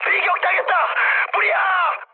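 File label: Korean on fire mood high; War Thunder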